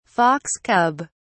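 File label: "fox cub", English, British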